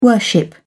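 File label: "worship", British English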